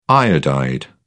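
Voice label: "iodide", British English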